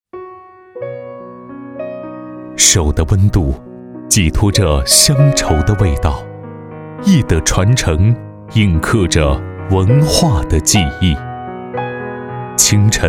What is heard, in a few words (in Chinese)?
男 品牌广告 抒缓 工艺品; 花之艺工艺品